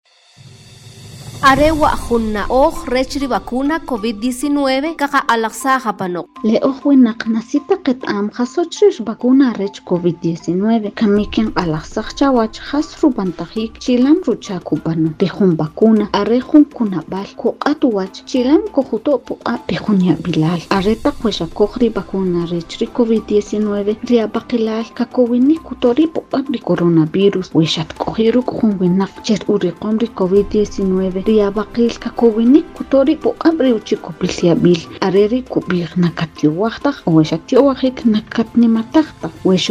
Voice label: SPOT KICHE